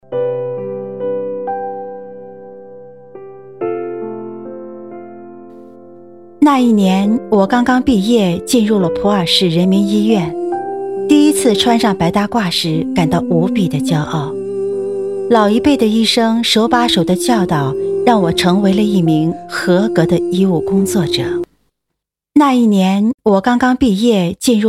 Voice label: 40岁旁白配乐; 角色

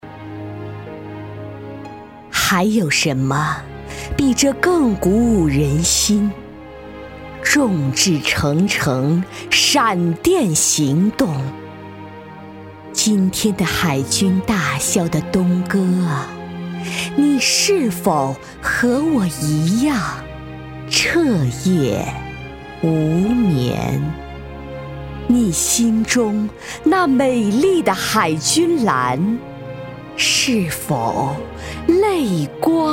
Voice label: 朗诵 诗歌, 女国; 海军蓝诗歌

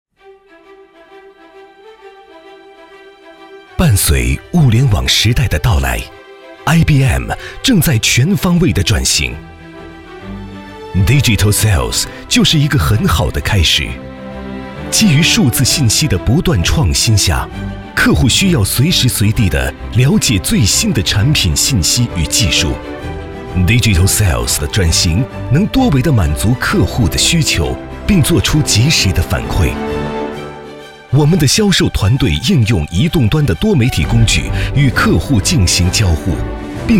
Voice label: 男国 宣传片 企业 科技; IBM

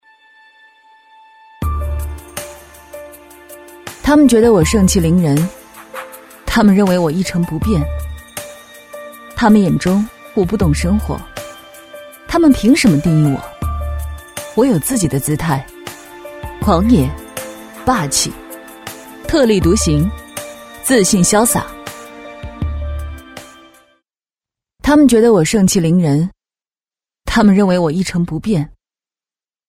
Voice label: 霸气女CEO自述; 角色

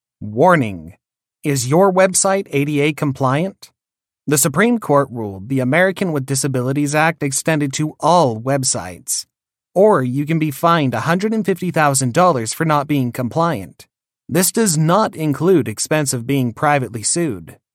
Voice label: 外籍男英 外语 美式英语

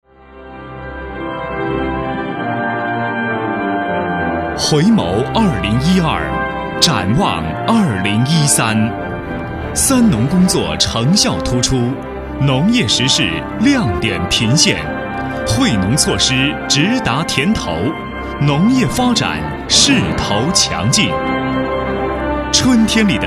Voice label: 男 专题 大气; 回眸三农

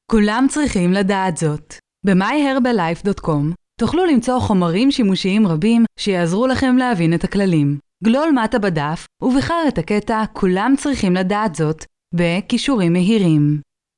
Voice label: 希伯来语女 外语 小语种

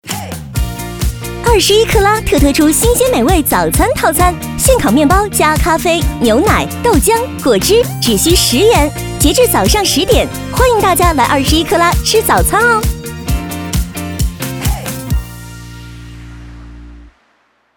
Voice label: 广告 促销 活力; 21克拉

